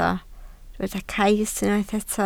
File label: "cais", Welsh